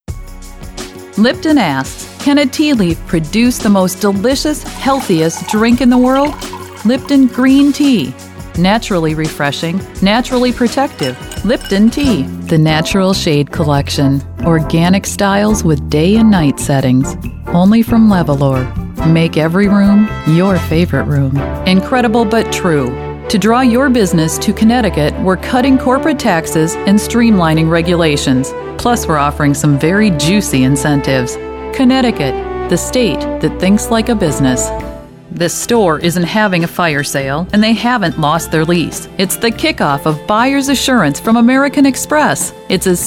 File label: Commercial